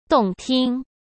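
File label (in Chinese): "动听", Mandarin Chinese; hsk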